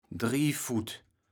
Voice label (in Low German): "Driefut", kaevels platt